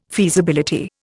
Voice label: "feasibility", Indian

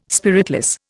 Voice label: "spiritless", Indian

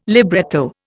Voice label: "libretto", Indian

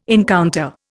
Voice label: "encounter", Indian

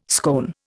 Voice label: "scone", Indian

